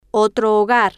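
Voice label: "otro hogar", Spanish, female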